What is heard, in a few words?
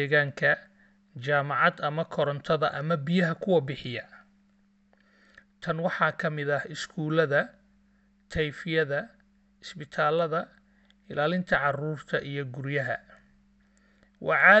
Somali